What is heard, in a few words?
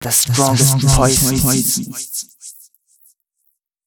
male, spoken hooks; Mutekki Media; Dance Ultra Voice VOL-1